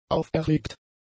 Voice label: "auferlegt", German; adjectives